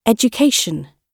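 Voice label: "education", British English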